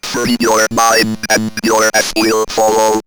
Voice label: Robot, robot vox; X-Static Goldmine